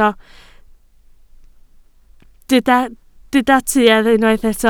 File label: "tuedd", Welsh